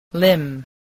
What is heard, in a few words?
"limb", spelling